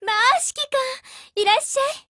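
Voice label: HELLO Japanese, Voice; GF Alarm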